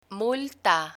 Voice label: "multa" Spanish; learn spanish

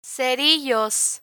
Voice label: "cerillos", Spanish

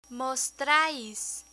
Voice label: "mostrais" Spanish; verb mostrar conjugation; learn spanish

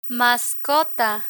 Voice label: "mascota", Spanish; hotel features; learn spanish

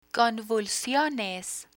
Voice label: "convulsiones", Spanish; emergency phrases; learn spanish

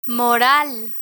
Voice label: "moral" Spanish; human resources terminology; learn spanish

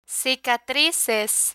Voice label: "cicatrices", Spanish; learn spanish

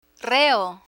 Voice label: "reo", Spanish

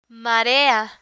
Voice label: "marea", Spanish; vocabulary; learn spanish

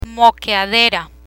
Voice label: "moqueadera", Spanish; symptoms signs medical conditions; learn spanish

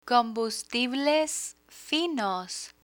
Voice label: "combustibles finos", Spanish; firefighter vocabulary; learn spanish

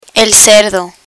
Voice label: "cerdo", Spanish

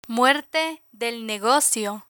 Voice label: "muerte del negocio" Spanish

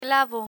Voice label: "clavo", Spanish; construction tools

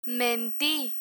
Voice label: "menti", Spanish; learn spanish

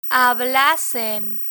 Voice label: "hablasen", Spanish

